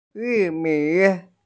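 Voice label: "yumi", Mandarin Chinese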